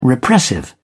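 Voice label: "repressive", British English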